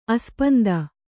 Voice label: "ಆಸ್ಪಂದ", Kannada

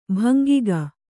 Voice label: "ಭಂಗಿಗ", Kannada

